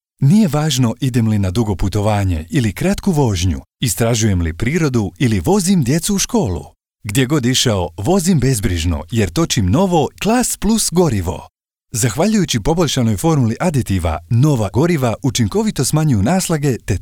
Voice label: Bosnian Native